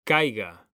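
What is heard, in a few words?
"caiga", Spanish, male; mekanta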